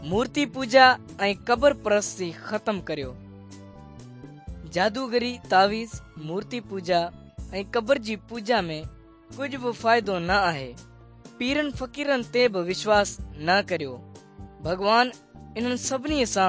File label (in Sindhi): good news sindhi dukslinu, drama